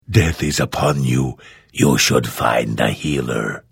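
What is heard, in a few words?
Hello, Vo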